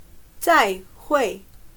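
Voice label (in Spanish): "再会", chino mandarin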